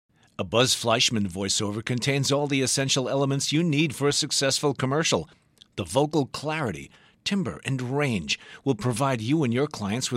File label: voiceover intro